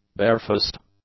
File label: "barefaced", English; adjectives